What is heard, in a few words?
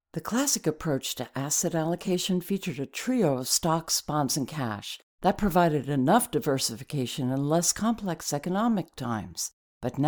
Corporate Narration